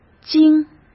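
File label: "精", Mandarin Chinese; hsk; chinese-flashcards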